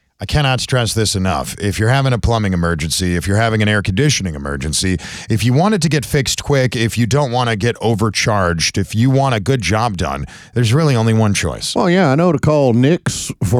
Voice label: RADIO AD; nicks plumbing radio ads